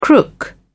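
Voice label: "crook", British English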